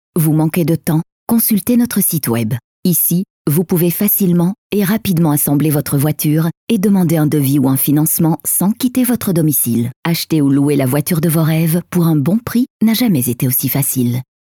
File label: Walloon French Professional